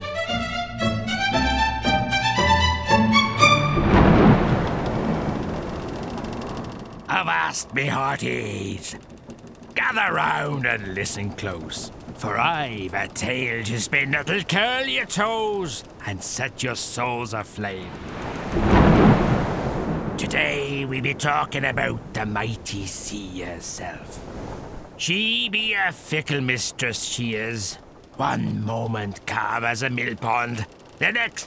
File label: The Pirate